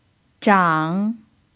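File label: "zhang3", Chinese; pinyin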